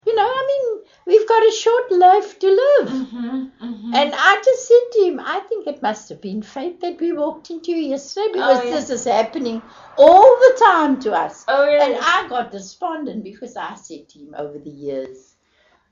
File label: Afrikaans Cape Coloured; Africa; South Africa